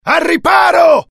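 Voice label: fear grenade, IT; mohaa; dialogue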